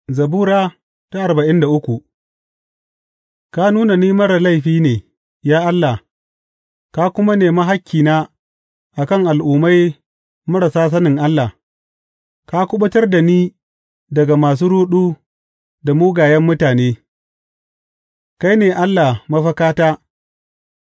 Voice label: hausa bible drama, psalms; hausa bsn nt drama